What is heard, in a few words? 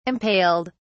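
"impaled", British English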